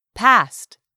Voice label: "past", English; 3000 words

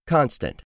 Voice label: "constant", english; 3000 words

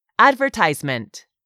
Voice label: "advertisement"; 3000 words

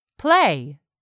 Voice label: "play", English; 3000 words

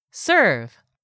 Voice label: "SERVE", english; 3000 words

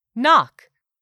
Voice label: "knock", English; 3000 words

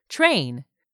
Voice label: "train", English; 3000 words

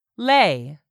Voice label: "LAY", english; 3000 words

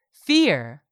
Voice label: "fear", English; 3000 words

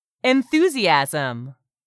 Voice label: "enthusiasm", English; 3000 words

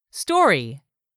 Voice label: "story", English; 3000 words

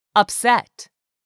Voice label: "UPSET", English; 3000 words

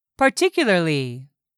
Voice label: "PARTICULARLY", English; 3000 words

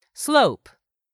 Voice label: "SLOPE", english; 3000 words

